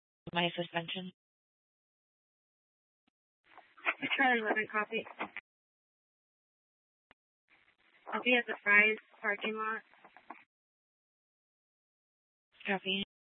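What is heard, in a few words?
police department Dispatch, scanner; TOPAZ Regional Wireless Cooperative (TRWC) - Mesa Simulcast; Mesa PD; Fiesta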